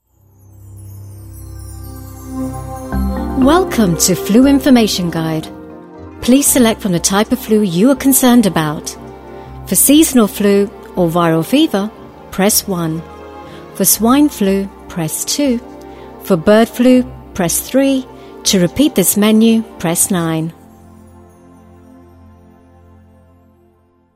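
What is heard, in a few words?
IVR uk eng, British English, UK accent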